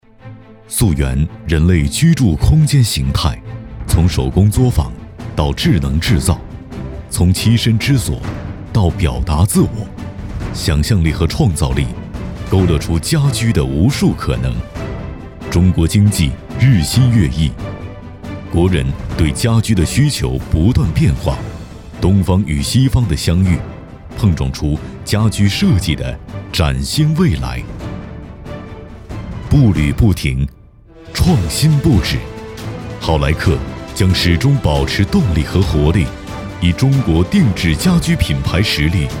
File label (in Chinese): 专题深沉稳健品质感 家居; 好莱客家居